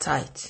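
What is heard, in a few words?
"tight", Nigerian Pidgin, male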